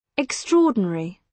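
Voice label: "extraordinary", British English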